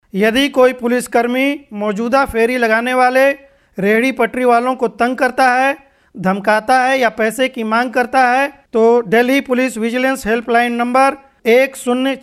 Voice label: Delhi Police VO